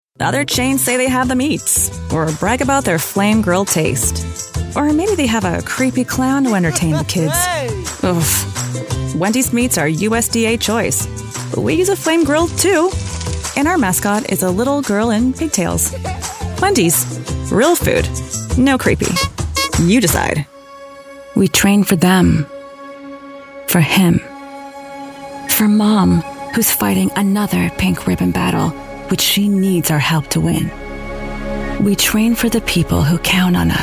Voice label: canadian female voiceover commercial